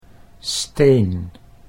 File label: "steen", Dutch